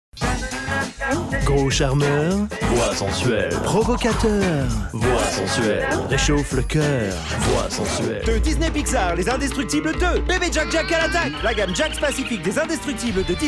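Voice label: French Characters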